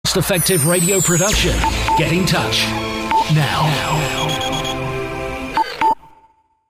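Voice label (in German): British English Fremdtake Dynamisch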